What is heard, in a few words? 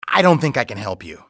dont think, english male; XBOX; civ